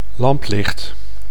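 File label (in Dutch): "lamplicht", Dutch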